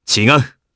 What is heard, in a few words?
Vox Deny jp; Clause; kingsraid